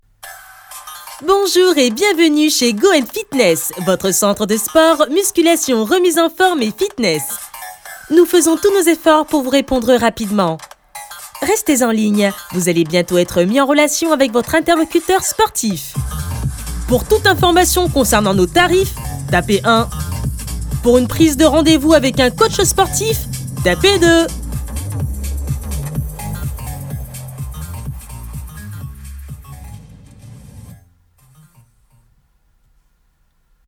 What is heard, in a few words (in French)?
Services téléphoniques Accueillante tonique jeune femme jeune homme; SPORT FITNESS